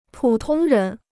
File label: "普通人", Chinese